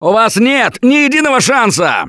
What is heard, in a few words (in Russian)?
Taunt, left4dead2 russian, Survivor Voice